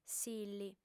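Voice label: "silli", Sardinian, female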